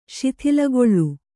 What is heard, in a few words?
"ಶಿಥಿಲಗೊಳ್ಳು", Kannada